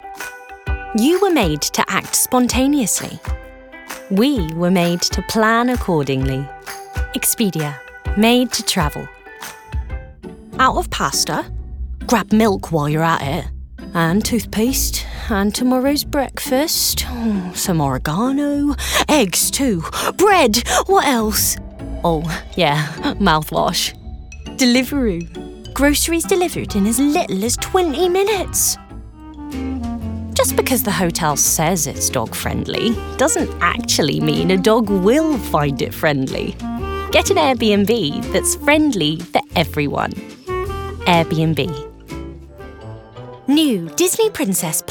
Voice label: Commercials; home studios